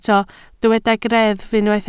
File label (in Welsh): "greddf" Welsh